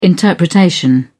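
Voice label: "interpretation", British English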